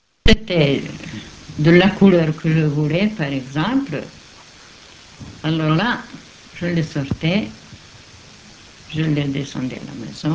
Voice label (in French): corse, accents de France